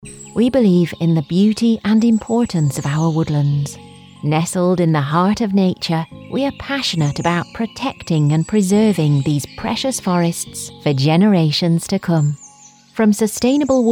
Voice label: Corporate Northern, Northern, Lancashire, RP, Relatable, Warm, Trustworthy